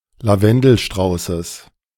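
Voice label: "Lavendelstraußes", German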